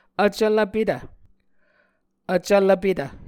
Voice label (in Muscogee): "vcvlvpetv", Muscogee